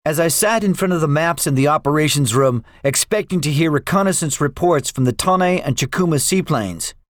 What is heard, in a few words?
debriefing, english; second wave; War Thunder